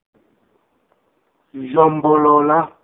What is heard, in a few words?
Mbunda j word sound; Nkangala dialect